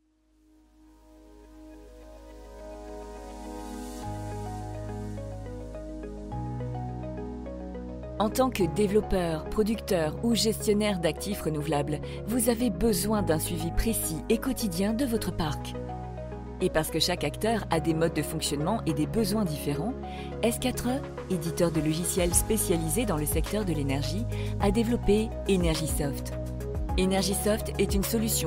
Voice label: VO Corporate; Energysoft